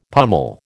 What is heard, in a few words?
"pummel", American